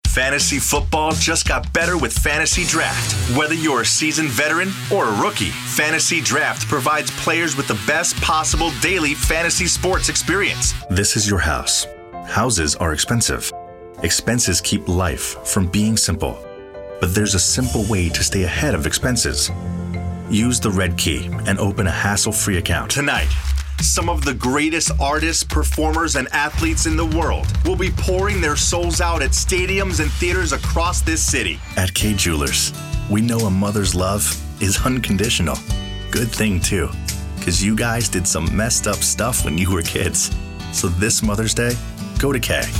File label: Commercial; Demo